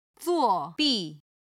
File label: "zuo4bi4", chinese